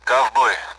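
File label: Speech, Russian, AWACS; Callsign; Cowboy; DCS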